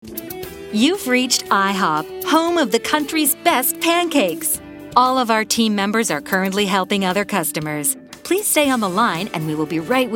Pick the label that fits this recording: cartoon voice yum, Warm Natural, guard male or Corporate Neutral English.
Warm Natural